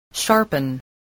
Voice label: "sharpen", voice spelling